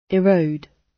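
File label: "erode" British English; Time, history; Thời gian, lịch sử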